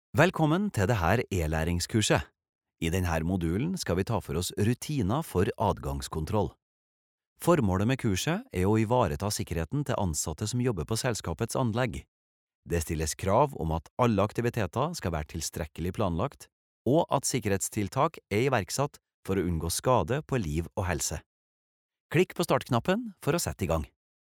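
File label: Norwegian trondersk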